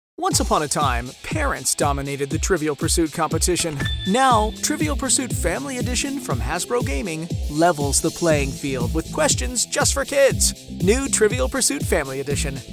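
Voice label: American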